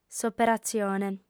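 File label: "s'operatzione", Sardinian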